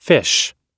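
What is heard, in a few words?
"fish", English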